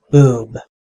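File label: "boob", US English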